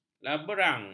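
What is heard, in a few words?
"laberang", Malay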